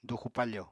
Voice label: "duxu-pafo", Apatani; Household Items